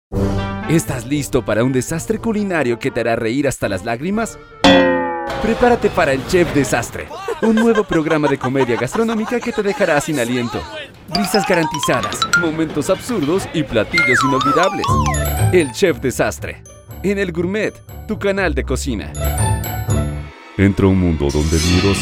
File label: spanish latin american promos, colombian